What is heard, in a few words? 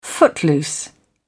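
"footloose", British English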